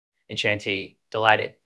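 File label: Voice Acting, Spanish, male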